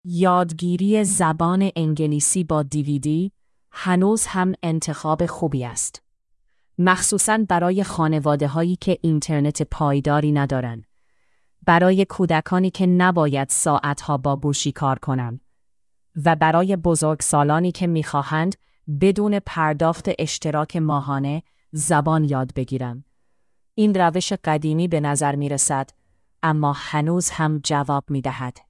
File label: voice, english language book english cd dvd